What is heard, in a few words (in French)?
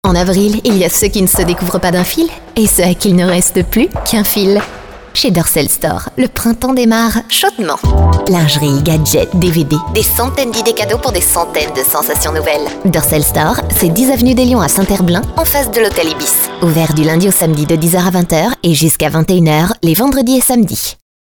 radio pub spot